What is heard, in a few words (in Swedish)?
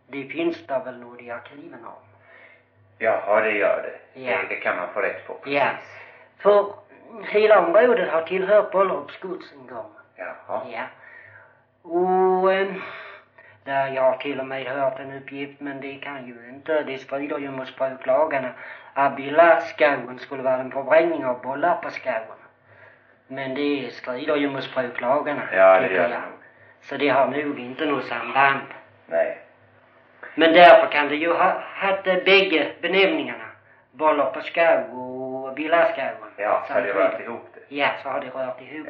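Brösarp Skåne, dialekter; Folkminnen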